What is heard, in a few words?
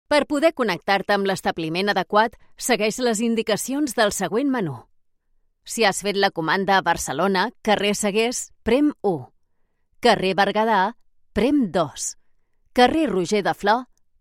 catalan ivr; professional Spanish and Catalan voice actor